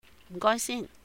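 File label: "m4 gòi sìn", Cantonese; thanks in advance; 唔該先; holidays and festivals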